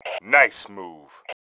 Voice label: NICE, MALE

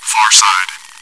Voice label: chitchat, bot, voice commands; svencoop

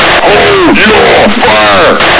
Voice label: radio hold fire; firearms

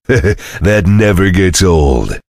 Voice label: chitchat; svencoop; voice commands; Duke

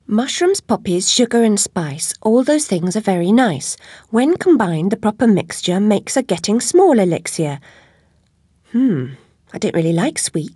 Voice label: chitchat; svencoop; Alice

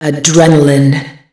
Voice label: adrenalin, female; tfc

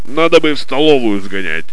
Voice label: monologue, soldier; svencoop; paranoia

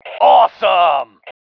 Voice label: AWESOME, MALE

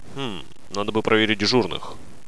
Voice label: monologue; svencoop; paranoia; officer

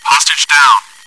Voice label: chitchat; svencoop

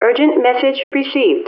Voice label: intercom; ProjectMobius

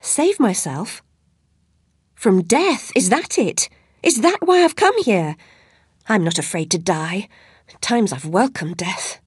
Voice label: cheer, voice commands; svencoop; Alice

